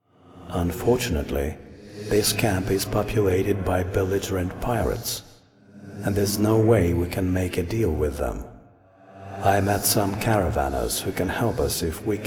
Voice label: Voice Dialog